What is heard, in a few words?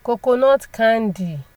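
"coconut oil", Nigerian Pidgin, female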